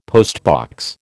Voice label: "postbox", American